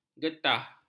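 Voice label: "getah", Malay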